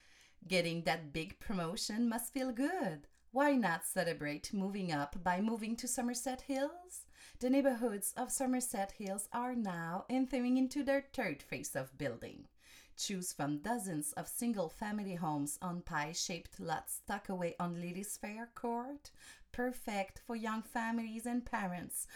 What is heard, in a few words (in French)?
english annonceur corpo anglais canada accent qc amical avec sourire; promo affirmee